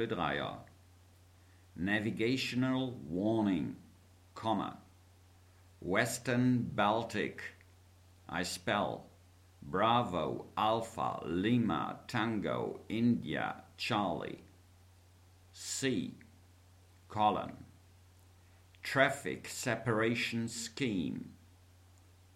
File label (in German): Seefunktext